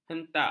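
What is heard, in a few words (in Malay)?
"hentak", Malay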